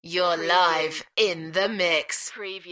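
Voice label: UK female, DJ Vocal; beanstalk audio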